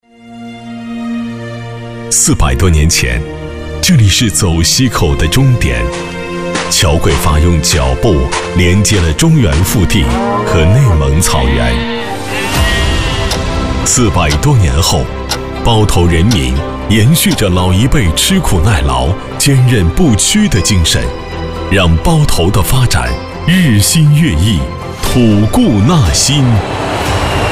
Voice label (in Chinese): 男 电台节目包装 频率宣传; 时光篇